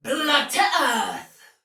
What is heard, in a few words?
See Ally Hit, Soldier Female, Battle; Dialog; Barks; Sinecure